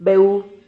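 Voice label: "beu", Spanish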